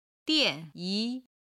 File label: "dian4yi2", Chinese